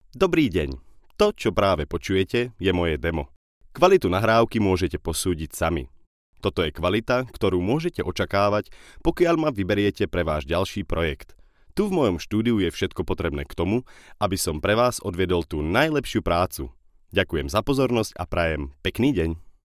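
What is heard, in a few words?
Slovak intro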